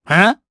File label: Vox jp; Bernheim; kingsraid